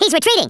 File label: coward, US Sports, Speech